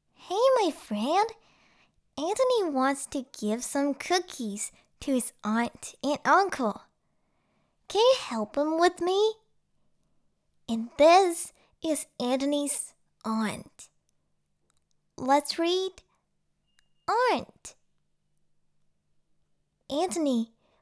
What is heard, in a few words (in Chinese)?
童声纯英文